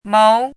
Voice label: "móu", hanzi